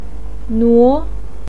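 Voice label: "nuo", Mandarin pinyin, tone 2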